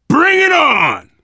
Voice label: mini finale get ready, voice, biker; Left 4 Dead 2; left4dead2 dlc3; survivor